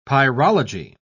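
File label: "pyrology", British English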